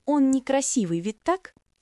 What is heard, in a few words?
russian phrases, insults; ロシア語のネガティブなフレーズ